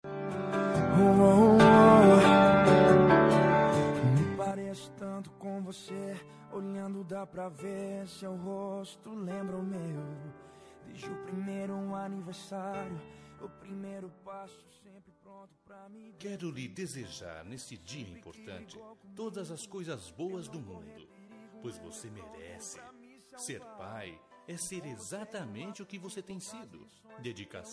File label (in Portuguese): masc, Dia dos Pais – Voz Masculina